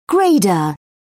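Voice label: "grader", English, British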